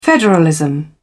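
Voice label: "federalism", British English, dictionary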